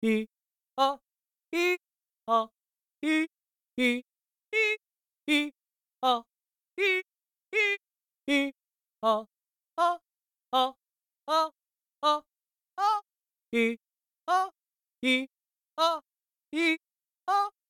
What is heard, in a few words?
voices, chimeras